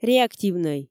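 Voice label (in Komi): "реактивнӧй", Komi, female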